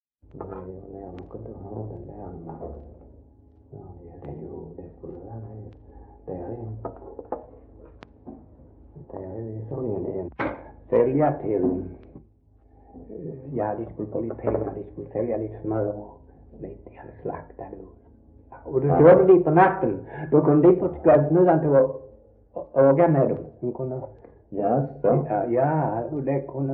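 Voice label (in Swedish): Svensköp Skåne, dialekter; Folkminnen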